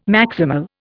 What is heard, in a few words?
"maximal", Indian